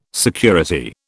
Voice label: "security", British